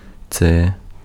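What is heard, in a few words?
Ukrainian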